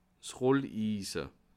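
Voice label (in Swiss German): "rollise", Bas Rhin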